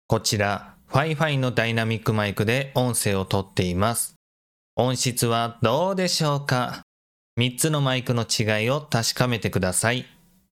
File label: fifine tank3